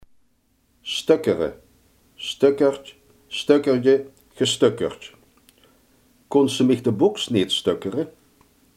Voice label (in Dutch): "verstellen", limburgse